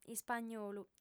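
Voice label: "ispagnolu", female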